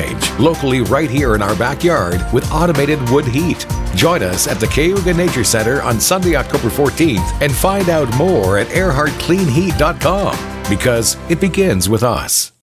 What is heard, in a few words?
Energy spot